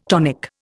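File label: "tonicity", Indian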